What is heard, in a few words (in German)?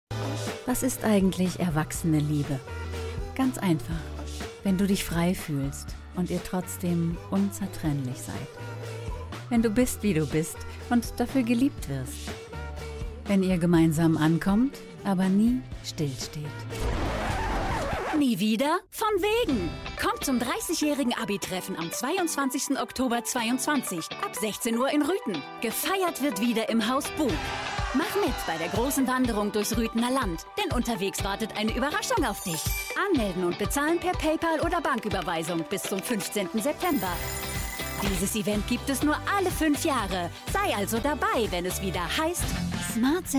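WERBUNG MIX, Sprecherin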